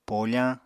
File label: "pofañ", Apatani